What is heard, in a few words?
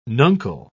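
"nuncle", English, British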